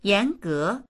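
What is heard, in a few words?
"yan2ge2", Mandarin Chinese; 严格; 厳格な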